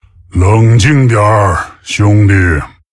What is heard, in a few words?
Male Tauren; TRIGGER Dire Frenzy; zh